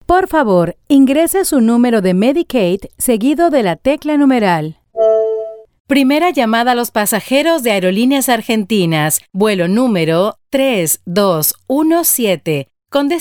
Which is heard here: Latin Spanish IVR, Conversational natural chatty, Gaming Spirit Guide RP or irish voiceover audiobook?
Latin Spanish IVR